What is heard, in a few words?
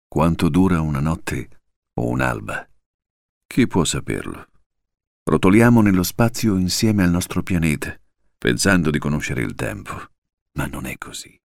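Italian, male, narration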